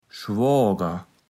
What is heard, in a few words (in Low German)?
"Schwooger", platt